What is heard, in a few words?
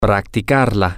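to Practice It feminine, Spanish, male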